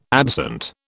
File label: "absent", British